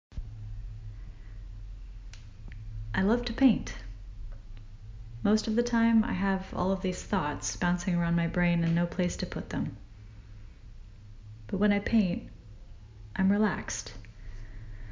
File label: Notebook Monologue